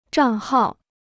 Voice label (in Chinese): "账号", Chinese